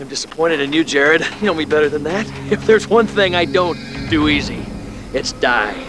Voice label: disapointed